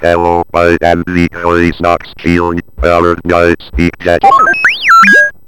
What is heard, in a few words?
Speak Jet Talking